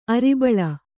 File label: "ಅರಿಬಳ", kannada